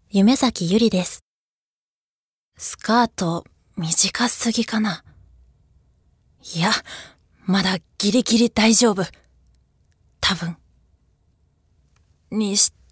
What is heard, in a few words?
drama